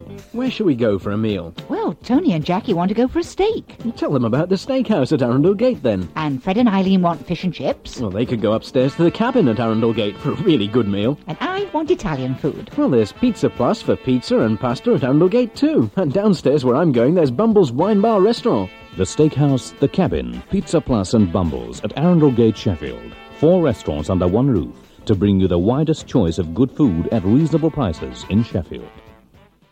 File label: Radio Advert; Radio Hallam; Arundel Gate Restaurants